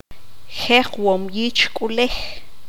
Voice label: "Comadrona partera", Q'anjob'al

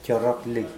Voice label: "joaroakli", Mokilese

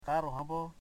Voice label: "nine", Foe

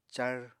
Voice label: "car", Apatani

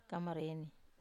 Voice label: "kamareni", Wamesa

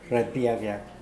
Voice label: "rapiakiak", Mokilese

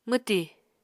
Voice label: "mwti", Apatani

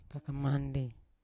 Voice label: "kangkamandi", Yawa; thirsty; unat

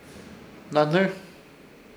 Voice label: "Hnahnɨr" mborebi, Ishir Chamacoco

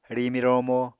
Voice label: "rimi-romo", Apatani

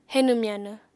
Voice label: "henw-myanw", apatani

